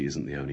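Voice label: isNQ ni only, Standard Southern British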